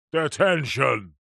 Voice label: human old grumpy man english teacher says detention; zapsplat